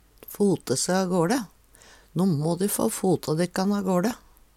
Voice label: fote se agaLe, Numedalsmål; No må de få fote dekkan agåLe.; ta beina fatt, forte seg avstad

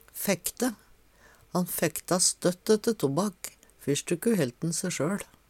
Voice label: "fekkte", Numedalsmål; Han fekkta støtt ette tobakk, Fyrsjtikkur hellt`n sjøL.; Verb

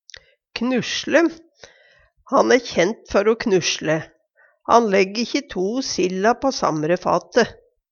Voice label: knusle, Numedalsmål

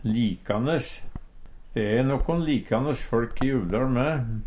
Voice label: likanes, Numedalsmål

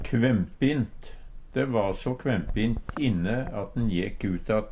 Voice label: kvempint, Numedalsmål; varm og dårleg luft; De va so kvempint inne at`n jekk utatt.; Adverb

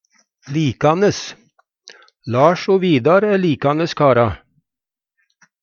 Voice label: likanes, Numedalsmål; Adjektiv

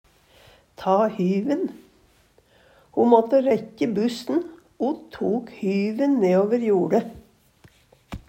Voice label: ta hyven, Numedalsmål; Uttrykk; ømme i stor skunding; Ho måtte rækkje bussen o tok hyven neåver joLe.

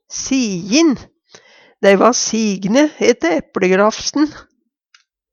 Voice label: "sijin", Numedalsmål; Dei va signe ette æpLegrafsten.; Adjektiv

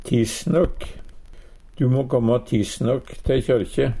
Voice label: tissnokk, Numedalsmål; tidsnok; Adverb

